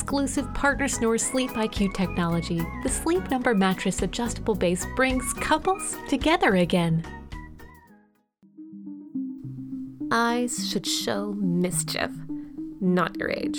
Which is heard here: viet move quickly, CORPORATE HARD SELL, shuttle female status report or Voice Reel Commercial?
Voice Reel Commercial